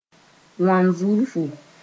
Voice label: "wanzurfu", Mooré